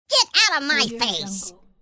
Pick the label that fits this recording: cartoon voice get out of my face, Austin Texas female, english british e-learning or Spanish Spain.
cartoon voice get out of my face